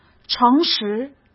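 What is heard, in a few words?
"诚实", Mandarin Chinese; chinese-flashcards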